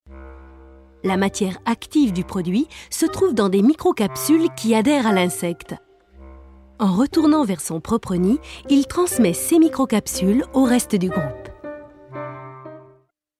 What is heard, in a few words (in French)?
Animalier, voix parlees, commentaires institutionels